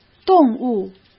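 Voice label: "动物", Mandarin Chinese; hsk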